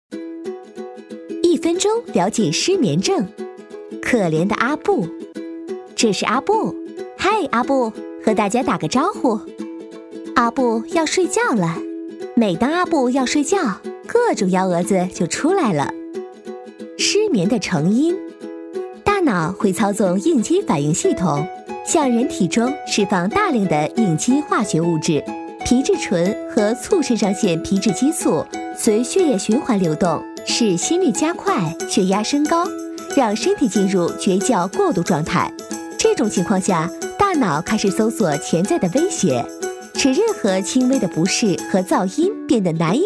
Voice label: 女 宣传片 病毒 医学小百科; 失眠的原因

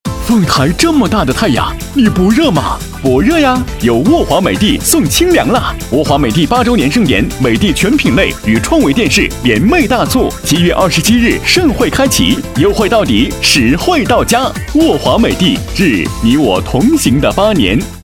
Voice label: 广告 家居家电 活力, 男国; 沃华美的送清凉

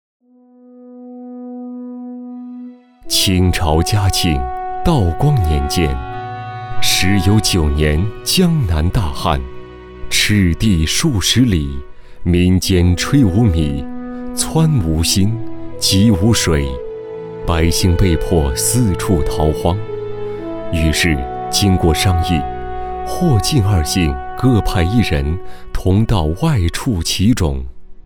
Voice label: 男 纪录片 人文历史; 历史敛巧饭

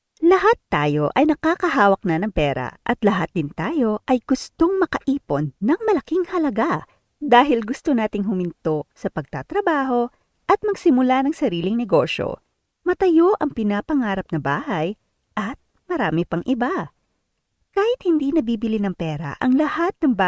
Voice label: 菲律宾语女 外语 小语种

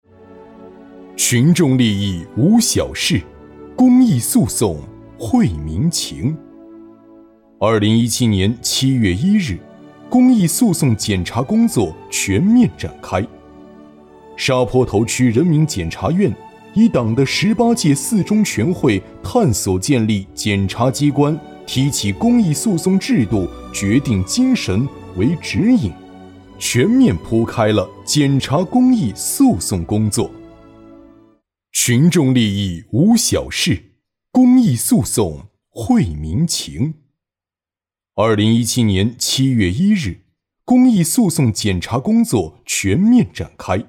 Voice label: 专题 政府 讲述, 男; 秉持检察初心守护公共利益

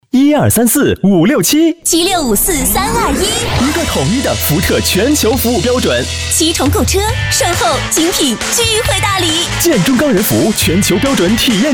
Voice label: 广告对播 男女对播; 福特

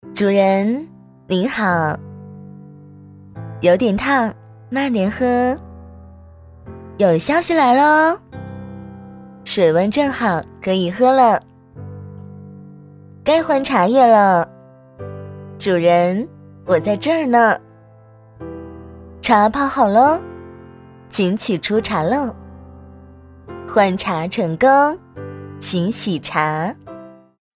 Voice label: 多媒体 广播提示 茶提示音 模仿; 茶提示音林志玲模仿

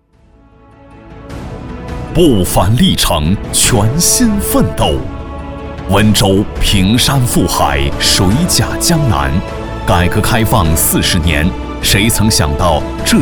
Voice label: 男国 宣传片 企业 激情; 温州银行宣传片

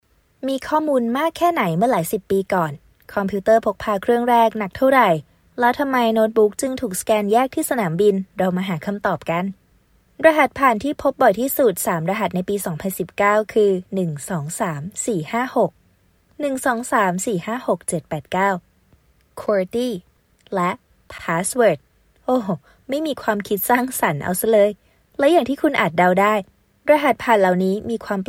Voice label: 泰语女 外语 小语种 年轻

